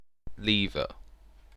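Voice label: "lever", British English; pronunciations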